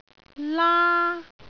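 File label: "laa1", Cantonese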